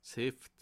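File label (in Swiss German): "heft", Bas-Rhin; livre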